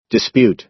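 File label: "dispute", American English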